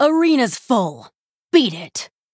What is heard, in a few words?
Female Night Elf Play